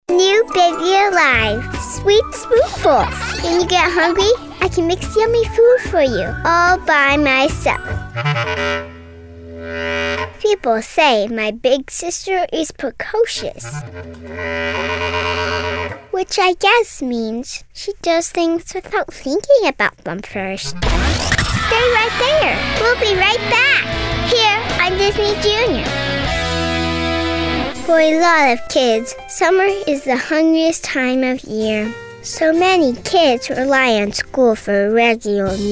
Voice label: Commercial VO

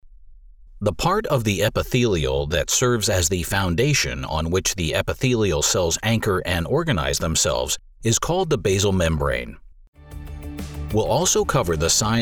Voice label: Medical Narration Variety

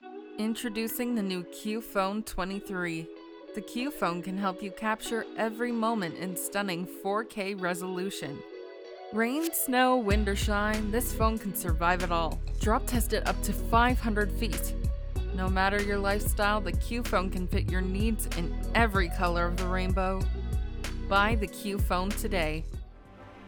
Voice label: Commercial Music

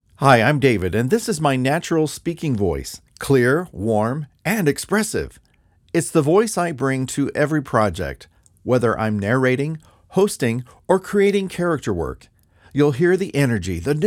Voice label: Natural Voice

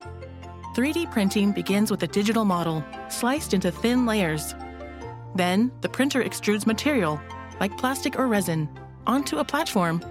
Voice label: narration

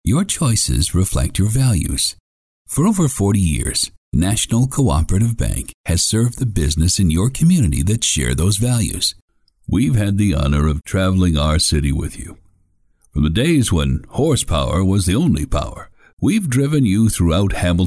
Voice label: announcer

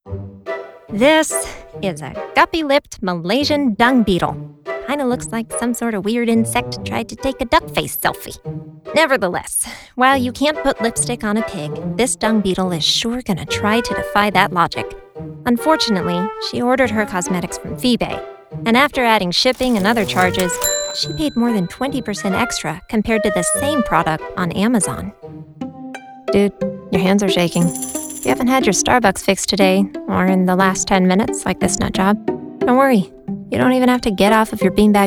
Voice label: explainer

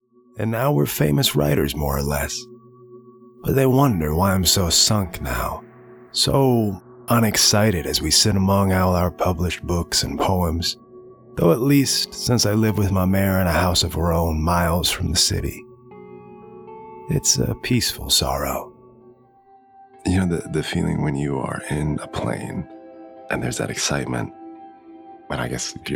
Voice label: Narration

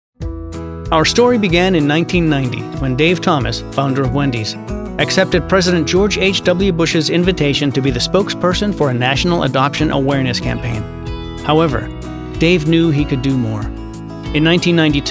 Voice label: Corporate

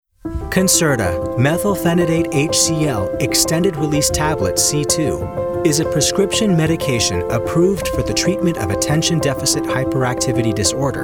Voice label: Medical Narration

